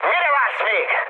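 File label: attack position, mood high, Hungarian; speech; War Thunder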